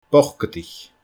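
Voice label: "bocadaich", Scottish Gaelic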